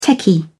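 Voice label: "techie", British English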